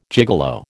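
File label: "gigolo", American English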